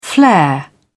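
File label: "flair", British English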